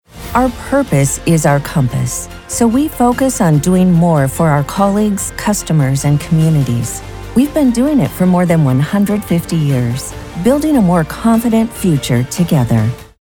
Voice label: spot; Metlife